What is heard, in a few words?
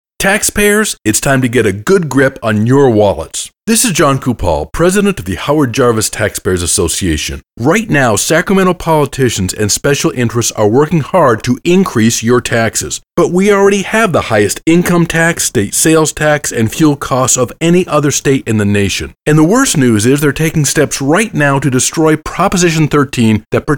Radio Ad; Good Grip